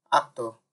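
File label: "actor", Nigerian Pidgin, male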